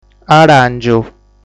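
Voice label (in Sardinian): "Aràngiu", Sardinian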